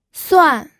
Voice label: "suan4", pinyin

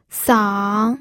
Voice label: "sang3", pinyin

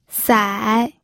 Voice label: "sai3", pinyin

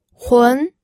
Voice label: "hun2", pinyin audio

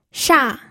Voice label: "sha4", pinyin

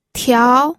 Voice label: "tiao2", pinyin

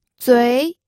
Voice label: "zui2", pinyin

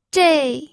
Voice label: "zhei4", pinyin

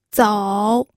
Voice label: "zou3", pinyin

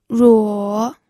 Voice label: "ruo3", pinyin

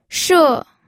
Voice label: "she4", pinyin

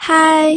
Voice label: "hai1", pinyin audio

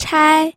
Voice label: "chai1", pinyin

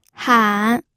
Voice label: "han3", pinyin audio

